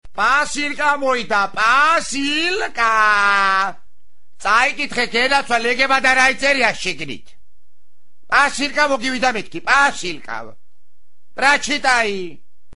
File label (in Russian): pasylka priwla gruzinskij akcent